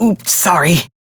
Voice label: Reject, Male; Dialog; Warframe; Railjack Crew; Perrin Sequence

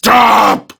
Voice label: Death Prime Grineer Male; Tau; Battle Barks

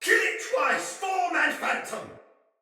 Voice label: Soldier Male; Battle; Sinecure; en

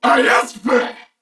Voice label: Attack, Corpus Venus Male; Dialog; Barks; Battle; Warframe; CorpusVenusMaleA

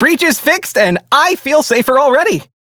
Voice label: Crew Fix Breach, Male; Dialog; Warframe; Railjack Crew; Perrin Sequence

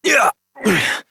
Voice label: Horse Mount Adult, Dialog; Duviri

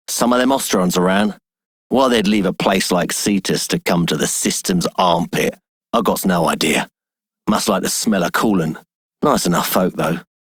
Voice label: Male Generic; Dialog; Warframe; Solaris Venus

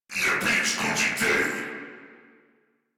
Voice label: Patrol, Battle Barks

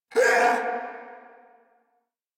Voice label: Pain Corpus Venus Male; Warframe; Battle Barks

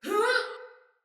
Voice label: Attack Sinecure Soldier Female

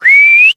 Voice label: Horse Sprint Adult; Duviri

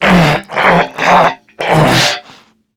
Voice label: Struggle Crewmen; Warframe; CorpusCrewmen; CrewmanA

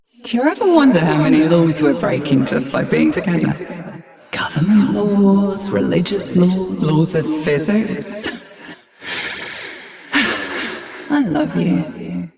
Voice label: Ambient Barks, en

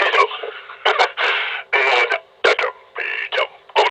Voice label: Enemy Idle, Dialog Barks; Warframe; Lasrian; Battle Barks

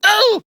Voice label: Pain; Warframe; Battle; CorpusAristocratManager; Treasurer; en

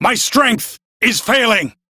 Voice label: Crew Near Death, Male; Warframe; Railjack Crew; Arbiters Hexis

